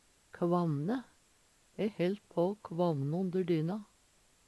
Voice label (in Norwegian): "kvamne", Numedalsmål